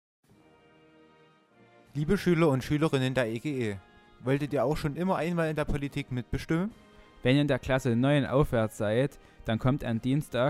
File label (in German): Durchsage; Bearbeitet Durchsage